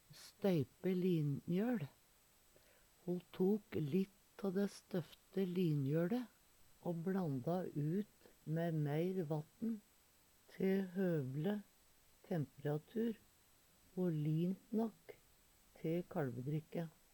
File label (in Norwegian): stoype linmjol, Numedalsmål